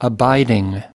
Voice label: "abiding", us

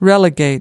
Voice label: "relegate", us

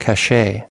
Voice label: "cachet", us

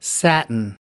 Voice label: "satin", us

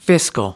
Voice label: "fiscal", us

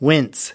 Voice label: "wince", us

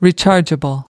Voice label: "rechargeable", us

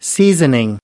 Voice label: "seasoning", us

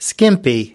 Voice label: "skimpy", us

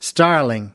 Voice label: "starling", us

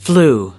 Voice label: "flew", us

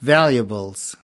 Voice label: "valuables", us

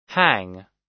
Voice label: "hang", us

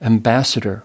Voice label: "ambassador", us

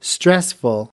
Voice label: "stressful", us

